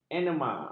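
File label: "enema", Malay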